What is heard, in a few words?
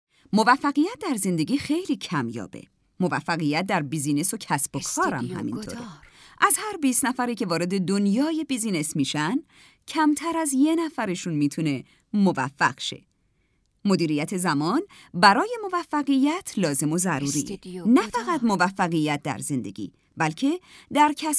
audiobook